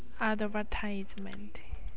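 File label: "advertisement", Japanese speaker of English, female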